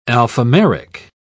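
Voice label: "alphameric", British English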